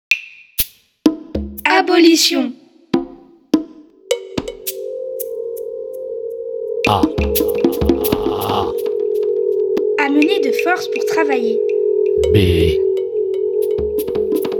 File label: Binaural; Acrostiches Abolition